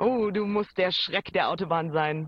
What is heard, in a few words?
german voice; BALLY; CORVETTE